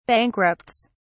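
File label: "bankrupts", British English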